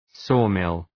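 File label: "sawmill" English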